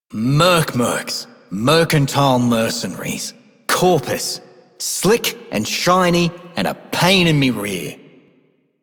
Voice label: male rail agent; Dialog; Warframe; Solaris Venus